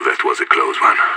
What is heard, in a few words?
radio bot end close; idf; vo